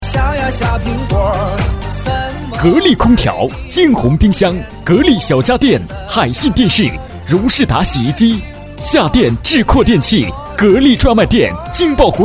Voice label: 【促销】志阔电器

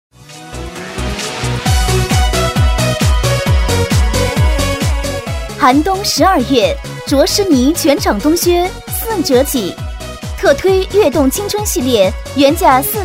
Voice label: 促销; 卓诗尼全场冬靴

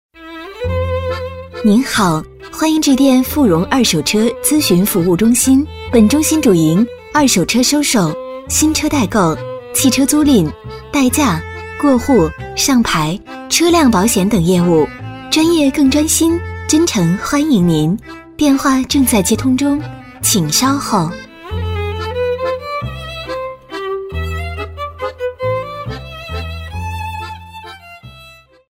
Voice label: 彩铃; 二手车咨询服务中心; 富荣

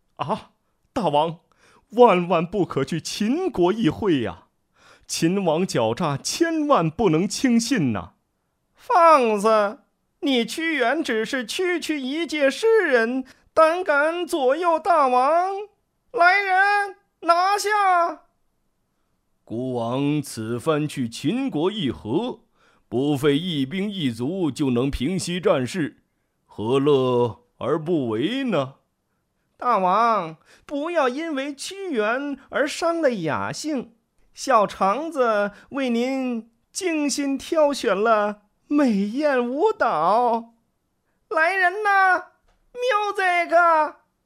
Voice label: 电视剧配音 大王

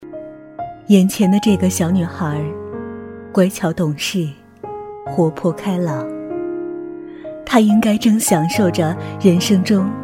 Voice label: 专题 眼前的这个小女孩, peiyin

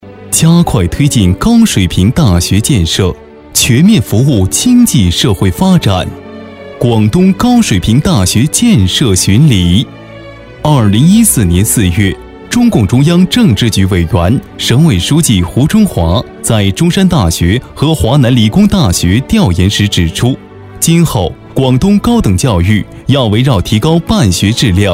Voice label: 专题 党政类

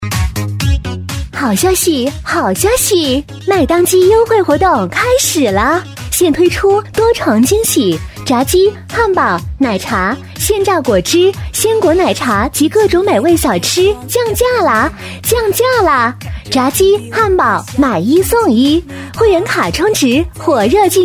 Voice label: 促销; 麦当基

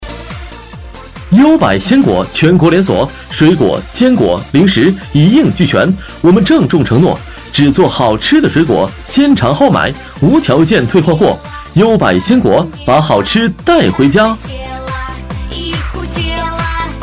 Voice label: 促销 鲜果; 优佰鲜果

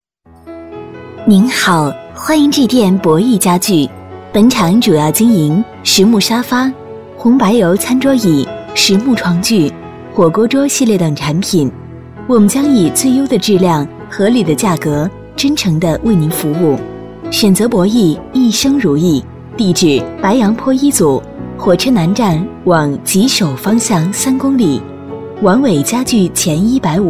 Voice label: 【彩铃】; 实木家具

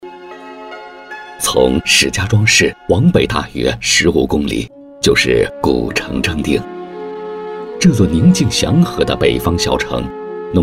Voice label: 专题 古城正定, peiyin

